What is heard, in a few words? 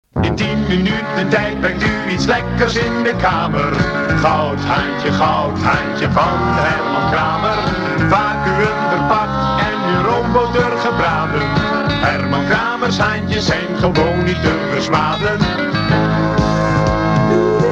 commercial